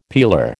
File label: "peeler", American